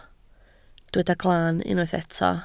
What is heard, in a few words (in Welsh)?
"glan", Welsh